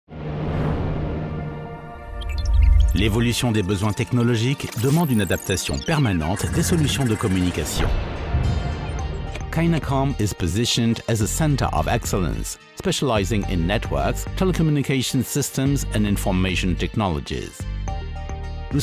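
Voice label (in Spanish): corporativo, French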